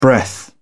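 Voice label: "breath", British English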